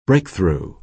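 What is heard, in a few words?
"breakthrough", American English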